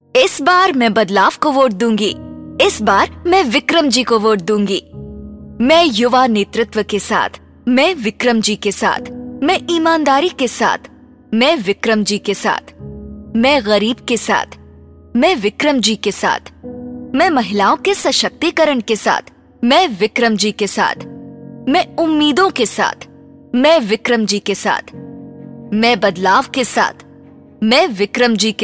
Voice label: Female